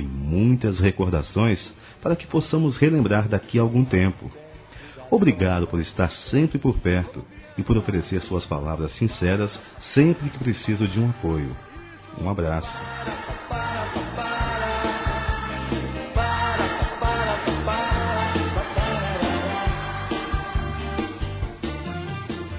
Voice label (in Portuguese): Amizade, Telemensagens, Masc